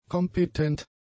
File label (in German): "kompetent", German; adjectives